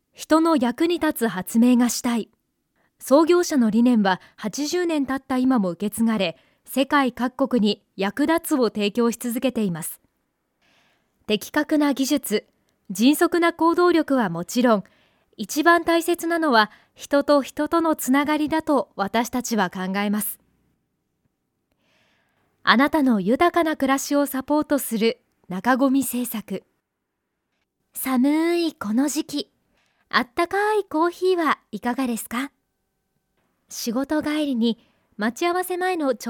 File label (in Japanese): ボイスサンプル 企業VP・CM風 明るく落ち着いた声・優しい声・しっかりとした声